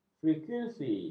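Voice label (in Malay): "frekuensi", Malay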